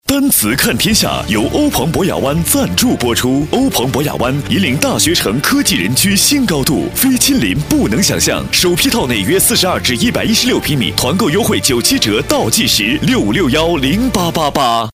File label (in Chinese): 男 稳重